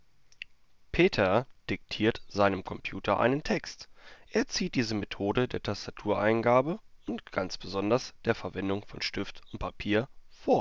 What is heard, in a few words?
gsp 370 pc